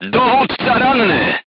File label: voice message commander wounded, polish